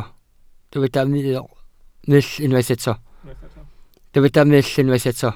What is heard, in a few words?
"mull", Welsh